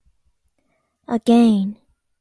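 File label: "again", Japanese, female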